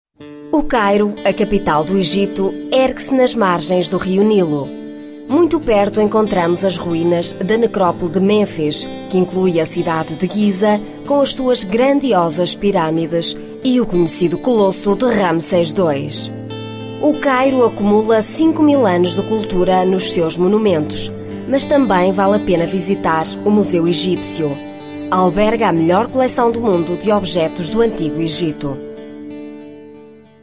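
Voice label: PT F commercial, Portuguese, female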